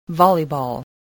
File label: "volleyball", spelling